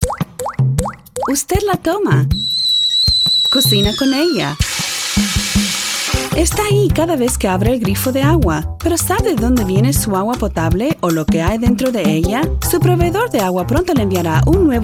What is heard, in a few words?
spanish; for granted